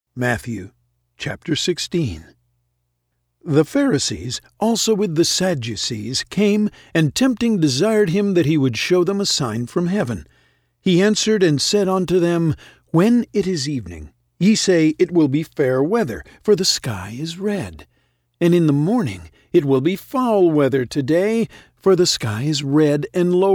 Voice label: Audiobook King James Version of The Bible